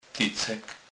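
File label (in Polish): "tycek", Kurpie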